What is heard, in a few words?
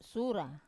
"sura" ten, Wamesa